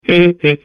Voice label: babble; Portal 2